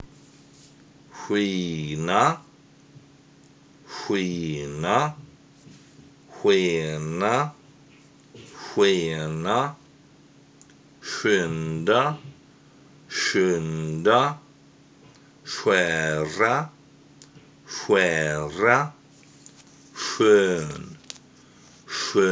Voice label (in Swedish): mjukt sk